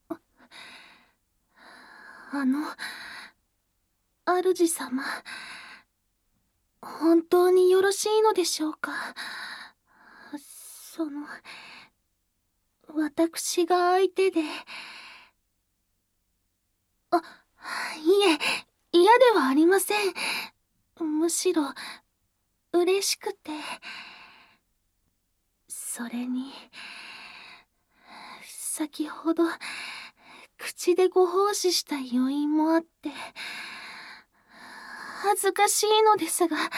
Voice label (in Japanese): 甘々和服メイドさん ボイスのみ, ASMR